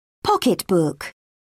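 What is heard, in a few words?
"pocketbook", British English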